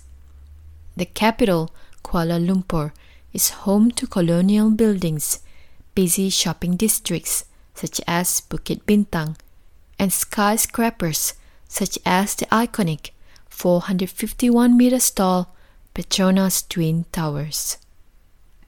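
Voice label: MS AccEN, Malay accented English; GoLocalise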